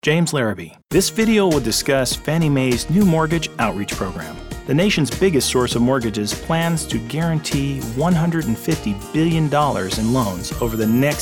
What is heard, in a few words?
narration